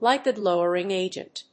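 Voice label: "lipid lowering agent", English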